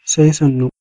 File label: goodbye hausa